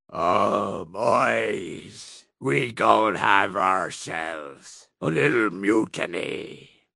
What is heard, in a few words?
upset; fertig classic games; blackwind